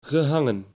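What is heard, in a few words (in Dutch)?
"gehangen", Dutch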